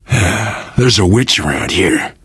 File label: heard witch, survivor voice